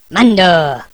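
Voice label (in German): "menno", German; cstrike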